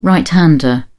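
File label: "right-hander", British English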